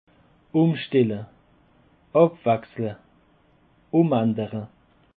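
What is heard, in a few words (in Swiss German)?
"umstelle", Alsatian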